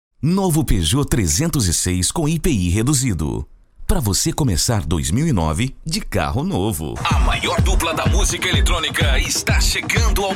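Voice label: Brazilian Portuguese General; GoLocalise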